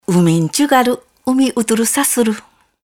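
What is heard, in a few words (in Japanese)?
Hontou, しまくとぅば; 漁師人ウミンチュガル 海恐ウミウトゥルサ スル。; 沖縄本島中南部の言葉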